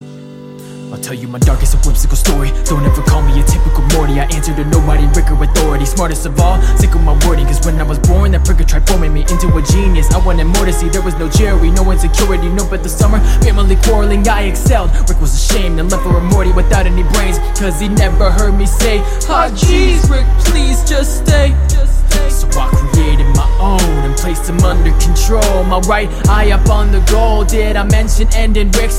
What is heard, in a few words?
Rap; Evil Morty